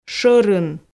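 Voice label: "шорын", Komi, female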